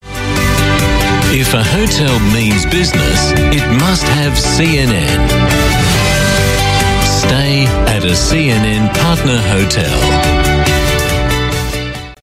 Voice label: AU Mid Atlantic English, Australian, Male; CNN HOTELS

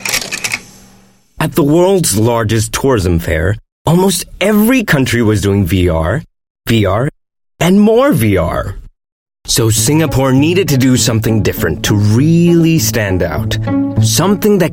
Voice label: Asian English; STB City-of-Possibilities

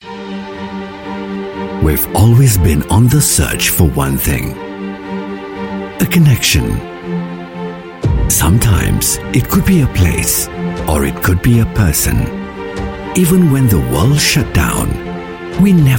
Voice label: Asian English Branding Video

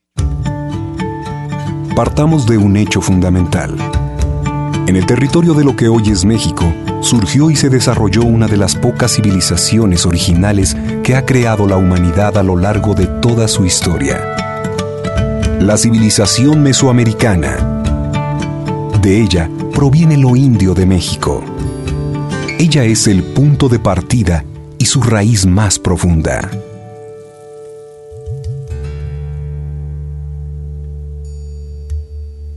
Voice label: Latin Spanish Mexico Narration Reel

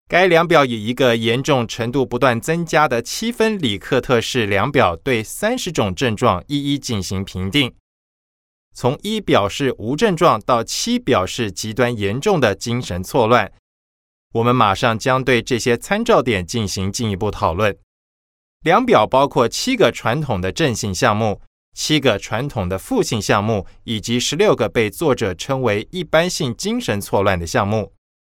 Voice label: Mandarin Taiwan voice-over male

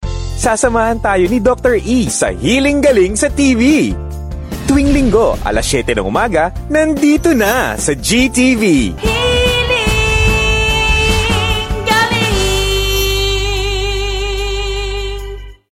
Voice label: Tagalog; GTV HEALING GALING

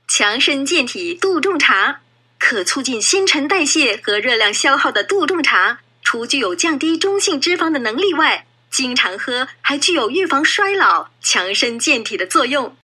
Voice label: Mandarin, China Female

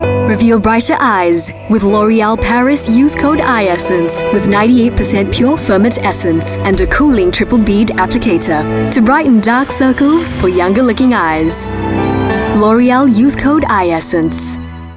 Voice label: Asian English Youth Code Eye; L Oreal

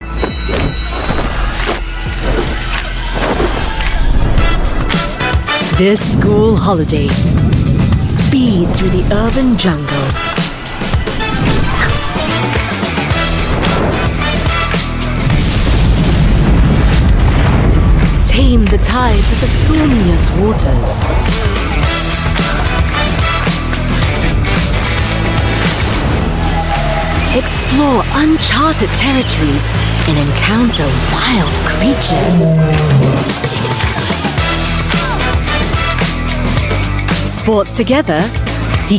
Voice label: Asian English; Schools Out Sports In Decathlon Singapore

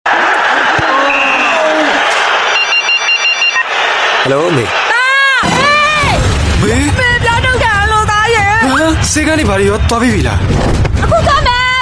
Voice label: m Burmese TVC

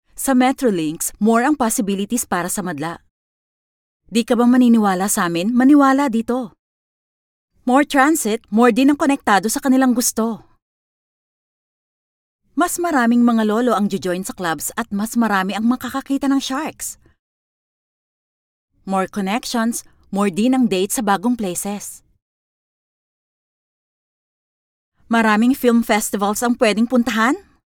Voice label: Female Tagalog voice sample

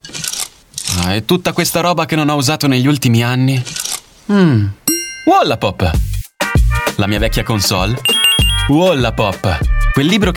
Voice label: Italian Spot; SPOTIFY IT WALLAPOP

